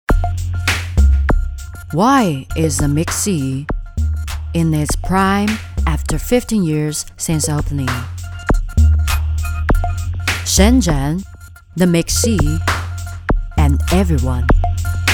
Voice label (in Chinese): 女 专题 英文城市介绍 深圳